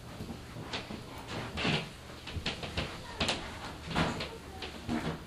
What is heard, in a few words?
evp french